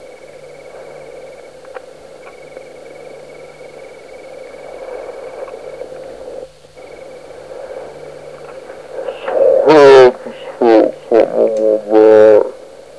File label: CH man voice EVP